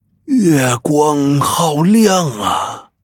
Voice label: Male Dryad, zh